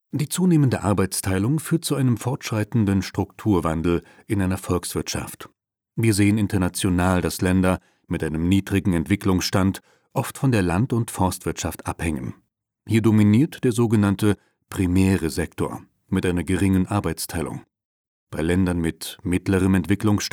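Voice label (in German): elearning ökonomie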